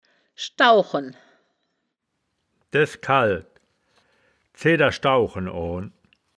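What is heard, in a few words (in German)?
"schdau chen", wittlicher woerterbuch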